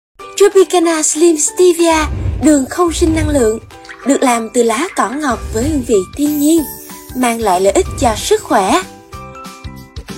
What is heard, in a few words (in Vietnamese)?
Giong nu Sai Gon long tieng quang cao TVC; TVC (Tropicana); Tropicana Slim Stevia